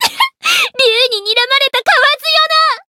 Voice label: limit break, jp; tiramisu